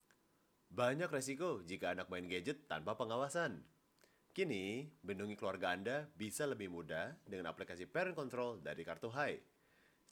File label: Casual Indonesia